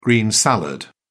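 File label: "green salad", British English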